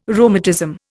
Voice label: "rheumatism", Indian